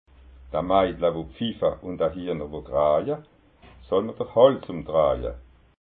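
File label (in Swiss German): "maidla", Alsatian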